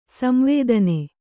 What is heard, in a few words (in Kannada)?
"ಸಂವೇದನ", Kannada